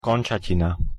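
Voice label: "končatina", Slovak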